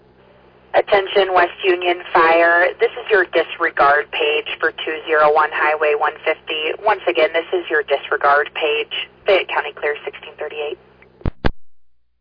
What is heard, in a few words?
West Union Fire, dispatch